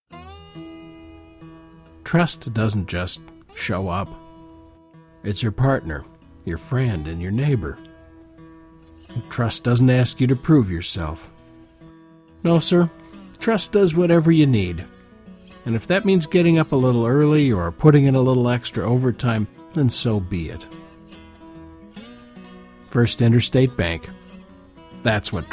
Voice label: with music; First Interstate Bank

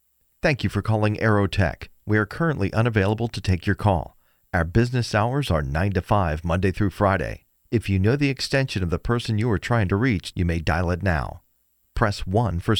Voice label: Voicemail

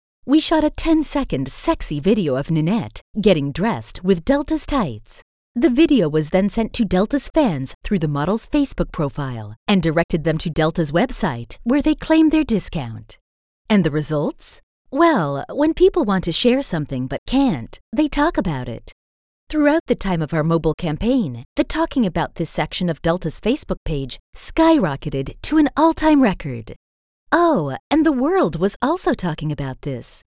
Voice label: Corporate Sensual

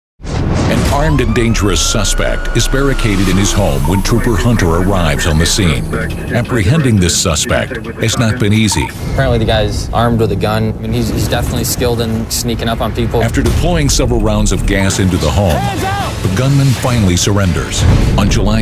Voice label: TV Narrations

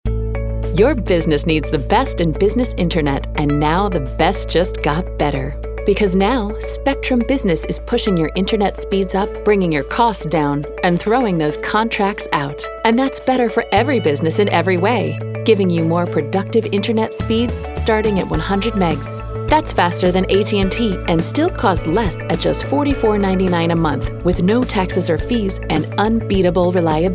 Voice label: Corporate

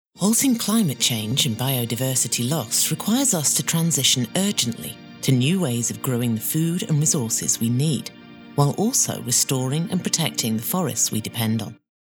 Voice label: Commercial climate

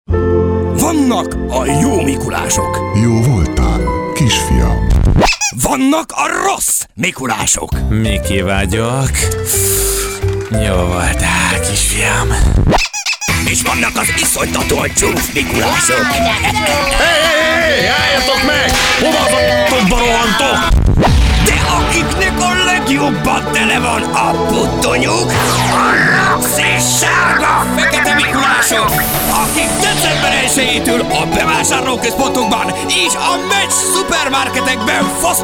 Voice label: character high energy hungarian

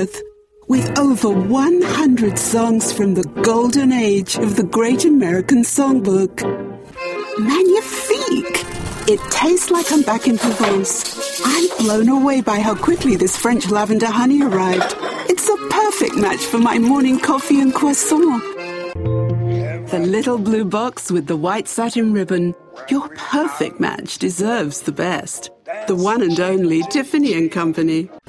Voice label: British Commercial

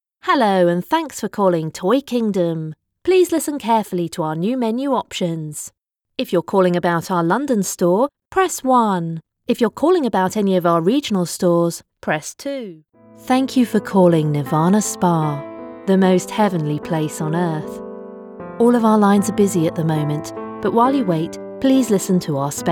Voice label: IVR and telephony

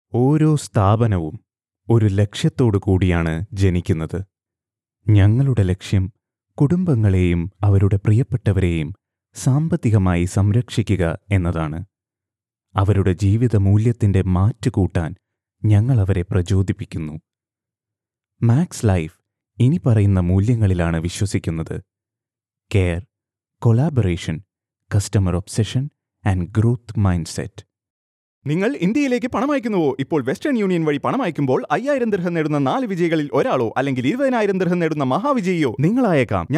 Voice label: Malayalam Voice Only

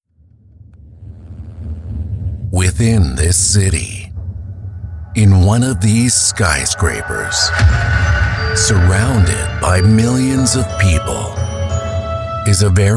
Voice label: VOICES - TRAILER

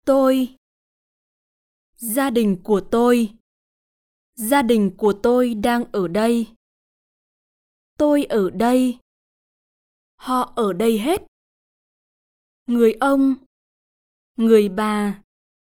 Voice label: Northern Female

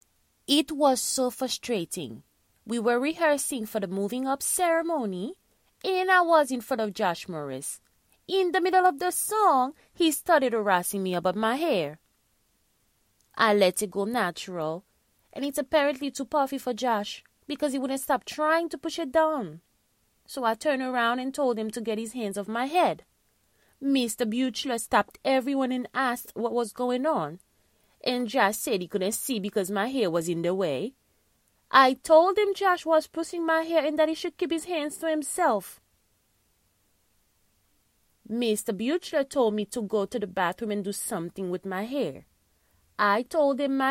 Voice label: Child Caribbean English

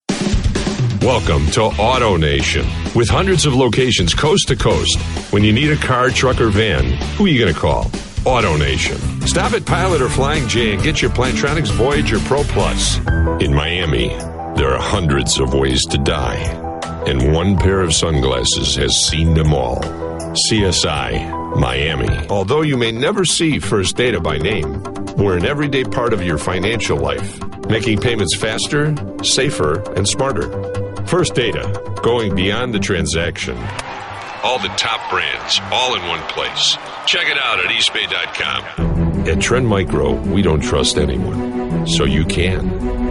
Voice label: Commercial Video

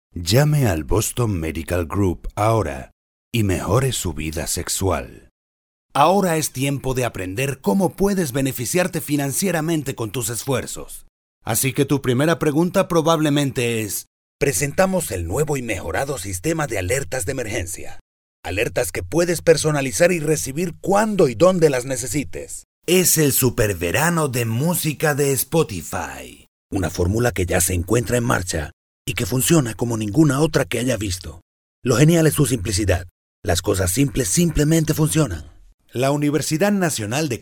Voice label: Neutral Latin Accent

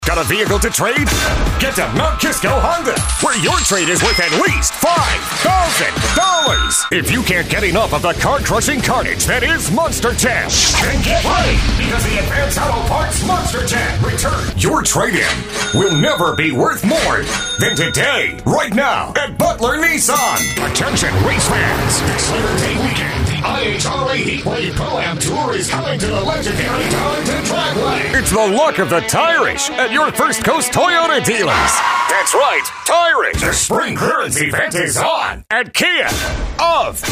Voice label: Hard Sell

